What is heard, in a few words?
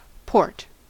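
"port", American English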